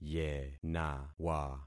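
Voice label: "yenawa", concatenated syllables; Affixing experiment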